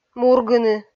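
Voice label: "мургыны", Komi, female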